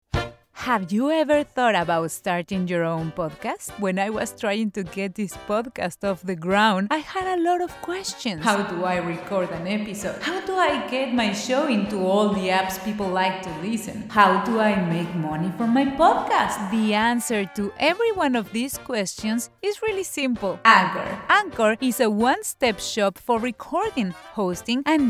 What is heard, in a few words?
english podcasts